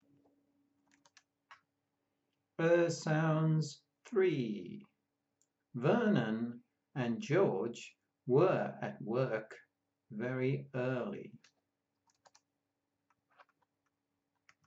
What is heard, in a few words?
Ur sounds, pronunciation of australian english